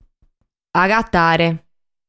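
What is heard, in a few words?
"Agatare", Sardinian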